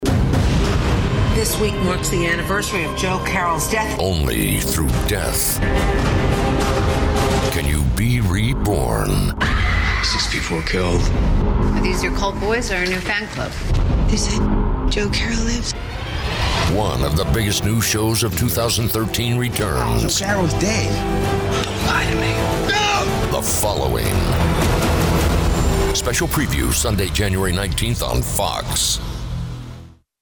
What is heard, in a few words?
Promo television